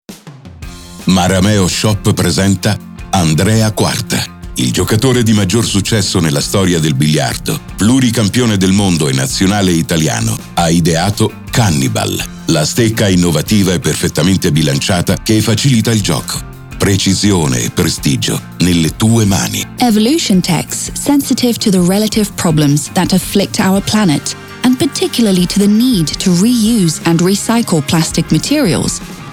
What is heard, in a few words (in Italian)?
demo voiceover